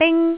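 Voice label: "bing1", Cantonese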